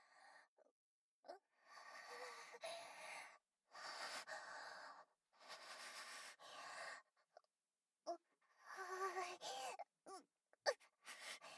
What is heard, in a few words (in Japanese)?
低音 耳舐め voice only, ASMR; 図書室で乳吹き低音アクメ→外出し懇願耳舐めエッチ